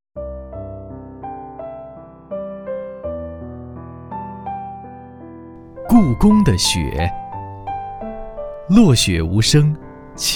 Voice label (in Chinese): 男, 朗诵; 故宫的雪